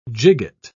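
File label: "gigot", British English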